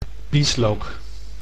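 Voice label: "bieslook", Dutch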